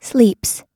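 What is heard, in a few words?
"sleeps", American English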